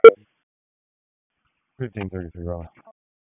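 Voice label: Police Northeast District Dispatch, p25; Raleigh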